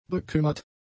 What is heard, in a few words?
"bekümmert", German; adjectives